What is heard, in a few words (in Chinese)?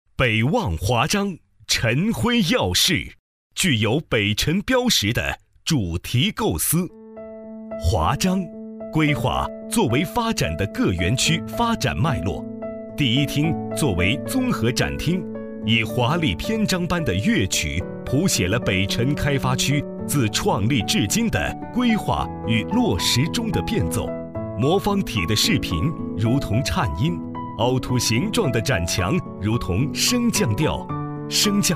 男 项目解说 展厅 音乐; 北辰经开区; 北辰经开区展厅